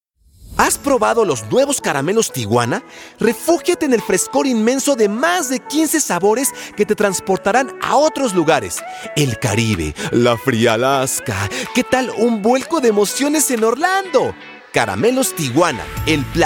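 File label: Latin American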